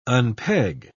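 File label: "unpeg", British English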